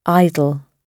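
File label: "idle", British English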